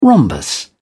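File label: "rhombus", British English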